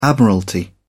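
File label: "admiralty", British English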